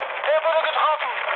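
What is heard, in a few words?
German aircraft destroyed mood med, speech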